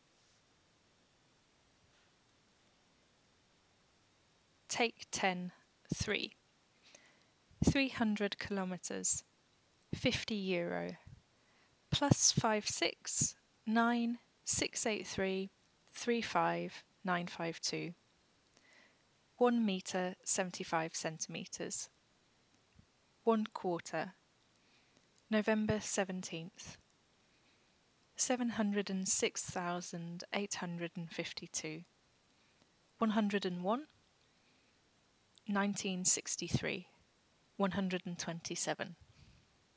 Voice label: British